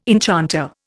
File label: "enchanter", Indian